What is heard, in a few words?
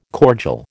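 "cordial", American English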